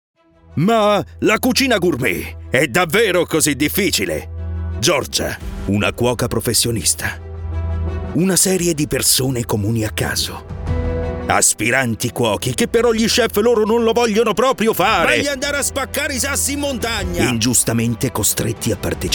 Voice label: Trailer; Pentola